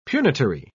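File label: "punitory", British English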